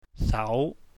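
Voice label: "sau", Cantonese, mid rising tone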